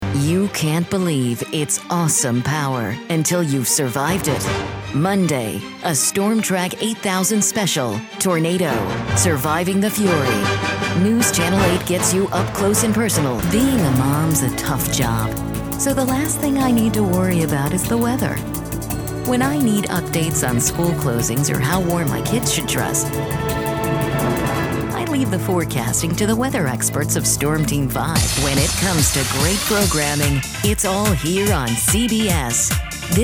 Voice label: promo, female voice talent

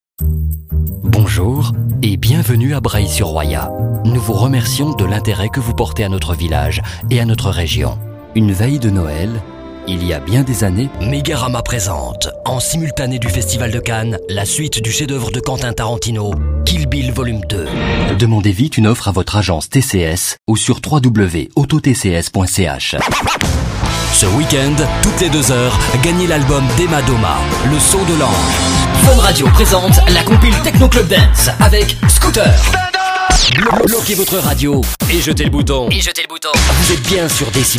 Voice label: french, French-European voice overs, Male

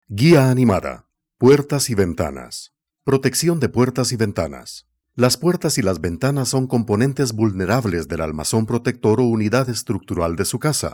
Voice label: spanish

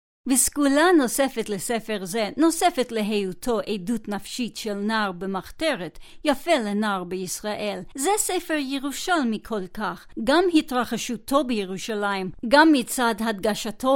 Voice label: Hebrew; foreign languages

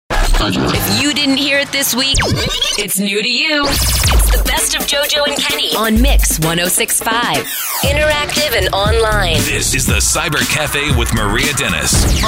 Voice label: Imaging, female voice

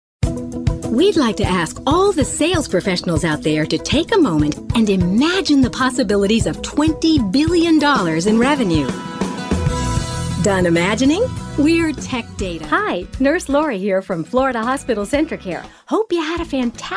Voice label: female voice talent comm

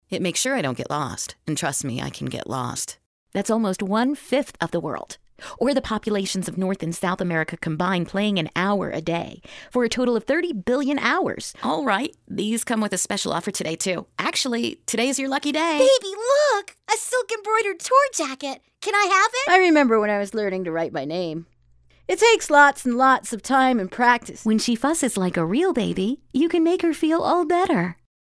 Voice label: comm, female voice

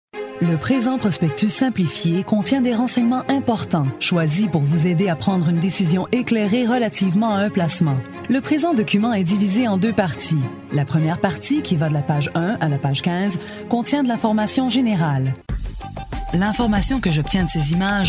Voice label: French Canadian; foreign languages

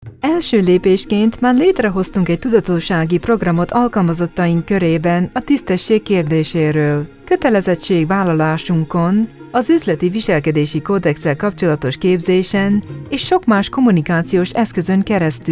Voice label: Hungarian; foreign languages

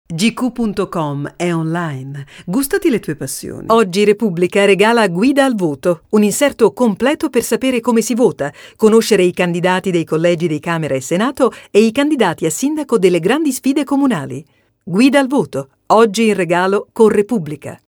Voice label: Italian

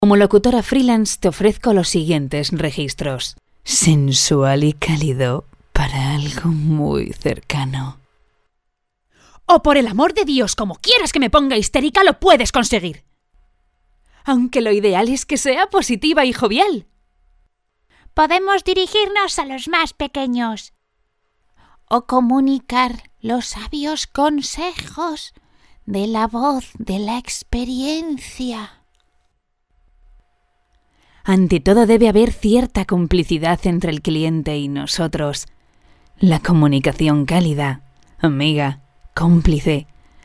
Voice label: Castilian; foreign languages

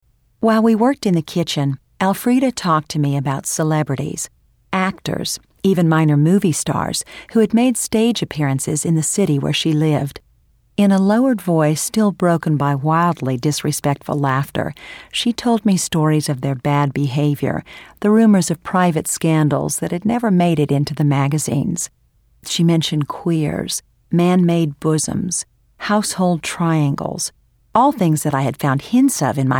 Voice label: audiobook

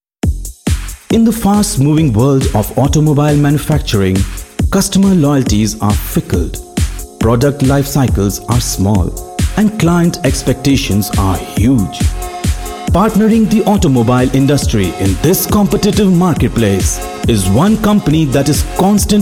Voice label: Indian Accent, Male voice overs; foreign accent voiceovers

